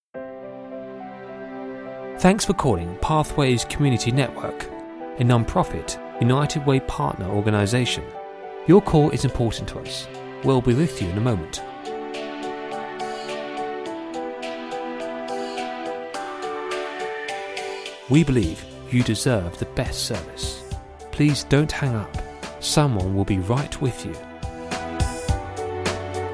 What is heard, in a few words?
english british ivr